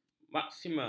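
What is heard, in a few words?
"marxisme", Malay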